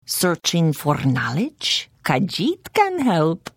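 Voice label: Service, Khajiit female; The Elder Scrolls III - Morrowind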